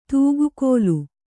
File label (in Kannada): "ತುಗ್ಗಿಲ್", Kannada